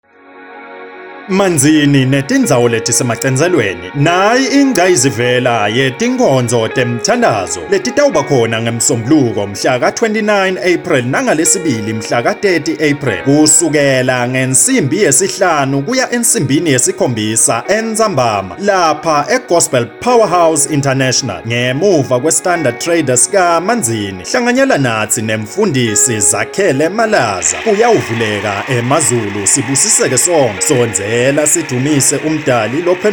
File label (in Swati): Siswati ad with sound effects; Power house